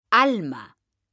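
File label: "alma", Spanish, female; mekanta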